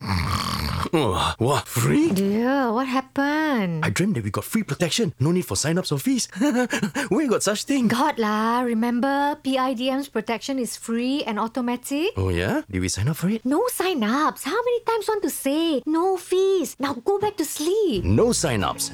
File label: Radio Ads; awareness-media